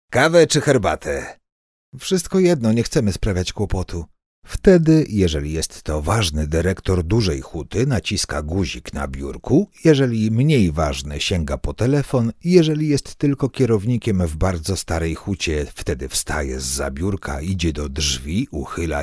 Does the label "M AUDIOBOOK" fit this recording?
Yes